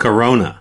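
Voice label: "corona", US English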